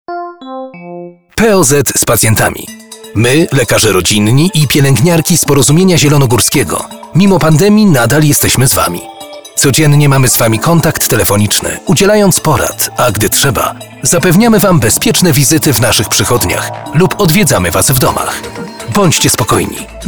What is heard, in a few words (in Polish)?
spot radiowy; poz z pacjentami